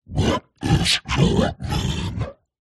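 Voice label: What is Your Name Evil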